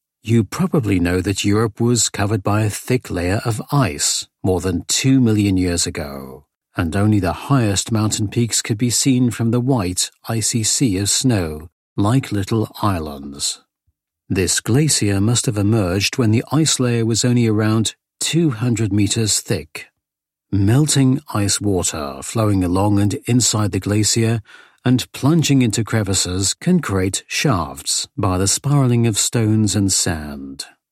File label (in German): doku